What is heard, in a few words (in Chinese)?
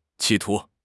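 "企图", Chinese; HSK6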